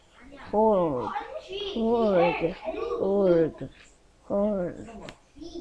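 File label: "hold", spoken word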